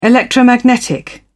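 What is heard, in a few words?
"electromagnetic", British English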